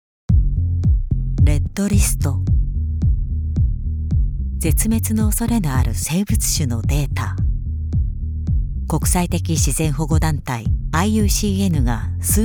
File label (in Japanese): BGMあり; レッドリスト